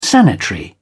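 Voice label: "sanitary", British English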